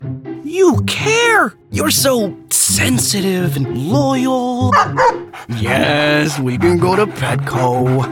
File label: Friendly English